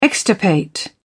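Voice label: "extirpate", British English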